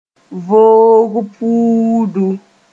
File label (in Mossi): "voog-puudu", moore